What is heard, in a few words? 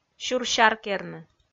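"сюр-сяркерны", Komi, female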